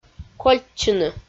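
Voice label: "кольччыны" Komi, female; audio dict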